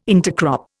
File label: "intercrop", Indian